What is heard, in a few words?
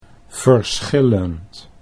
"verschillend", Dutch